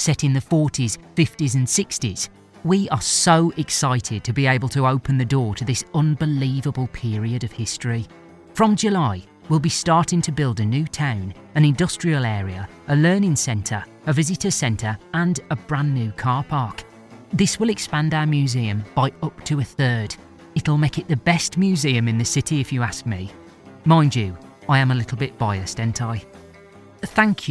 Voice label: Black Country

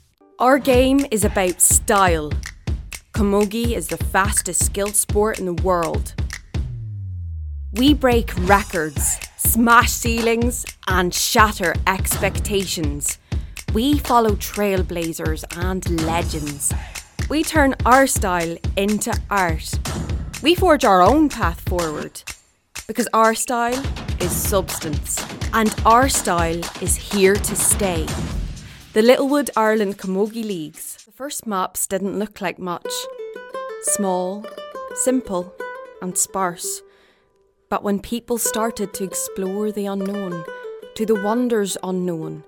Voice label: Commercials, 20s

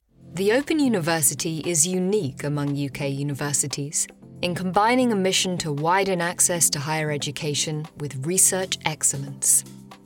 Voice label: Narrative compilation

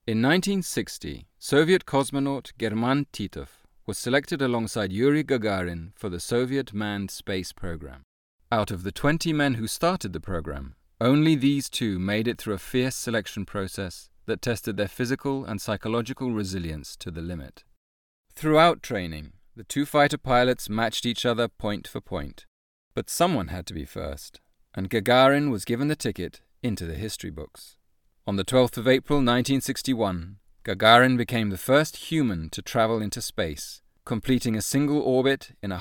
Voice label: Narration

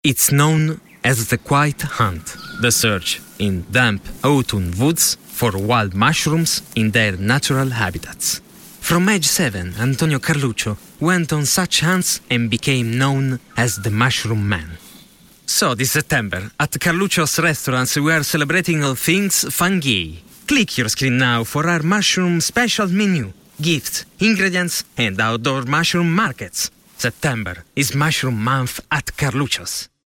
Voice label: English

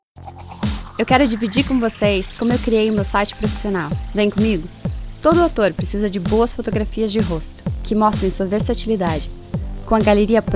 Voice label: Brazilian Portuguese

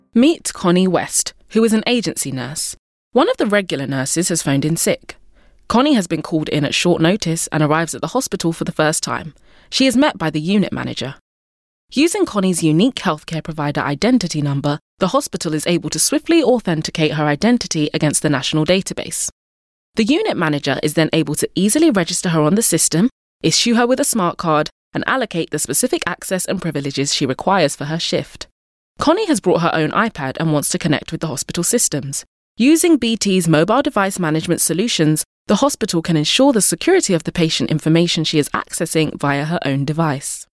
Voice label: Corporate

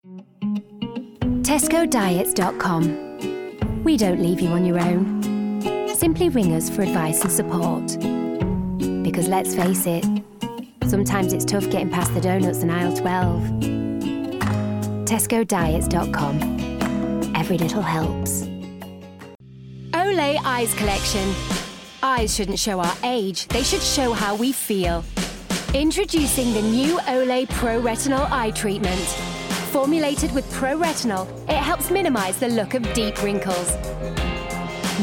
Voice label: Commercials

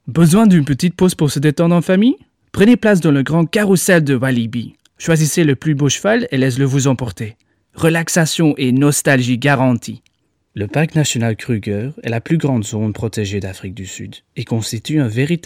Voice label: French; Flemish, Male, Home Studio, 30s-50s

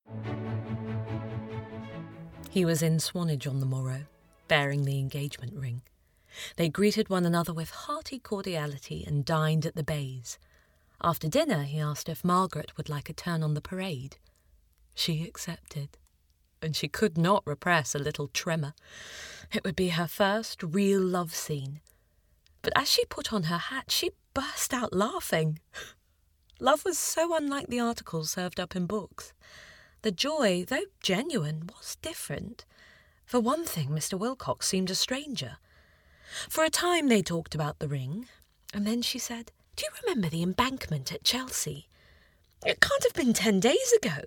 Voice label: Audiobook

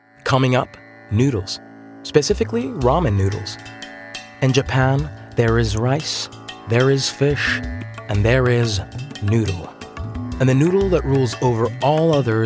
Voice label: Narration

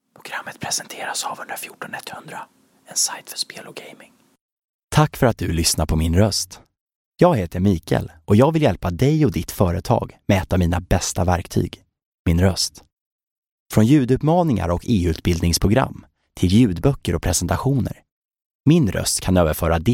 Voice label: Swedish

